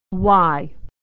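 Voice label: "y", American English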